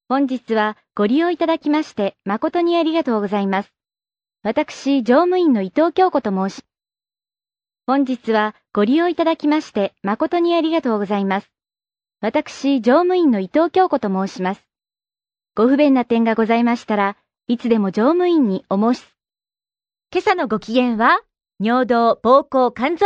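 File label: Japanese female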